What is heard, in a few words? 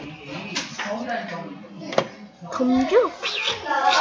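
"jab", spoken word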